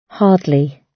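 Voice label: "hardly", British English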